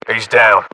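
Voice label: inform killfirm generic, battlechatter, British; localized english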